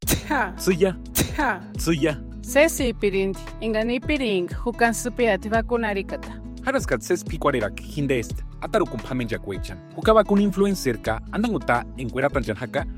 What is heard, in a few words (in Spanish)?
Spot Radio Purepecha; mexico; salud inclusiva